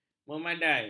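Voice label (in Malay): "memadai", Malay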